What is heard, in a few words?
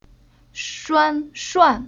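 "Shuan", Chinese syllable; Уроки фонетического блока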